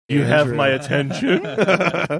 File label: saying you have my attention funny